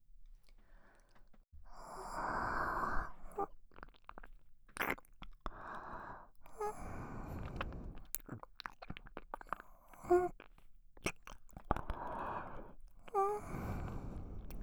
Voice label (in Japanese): おまけの耳舐めカウントダウン射精, ASMR